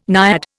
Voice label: "naiad", Indian